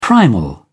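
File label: "primal", British English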